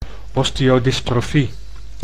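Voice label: "osteodystrofie", Dutch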